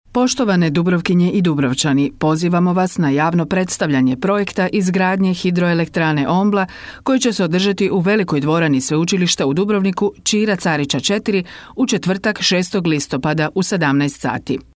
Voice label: croatian female; dictor; dictor-sounds